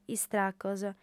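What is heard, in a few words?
"istracos", female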